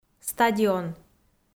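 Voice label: "стадион", Komi-Zyrian, female; audio dict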